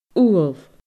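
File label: "ubhal", Scottish Gaelic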